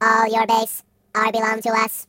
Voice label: "Flawless", robot voice

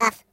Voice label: Ooff, Robot; hedgewars

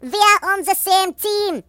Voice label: Sameteam, voices Russian; hedgewars

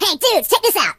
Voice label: "Watch this", Surfer

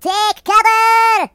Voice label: Takecover, Russian; hedgewars

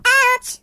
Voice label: Ouch, voices Singer; hedgewars

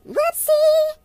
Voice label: "Oops", voices, Singer; hedgewars; HedgewarsMobile

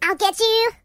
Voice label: "I'll get you", singer voice

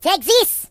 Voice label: Firepunch, Russian

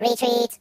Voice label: Run away, voices Robot; hedgewars

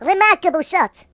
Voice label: Brilliant, Russian; hedgewars

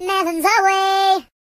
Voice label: Melon, Singer

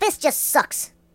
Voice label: "Bugger", Mobster

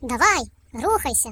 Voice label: Come on then, uk; hedgewars; Default uk

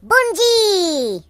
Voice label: Bungee, Russian; hedgewars

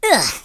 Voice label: Ooff, Hill Billy; hedgewars

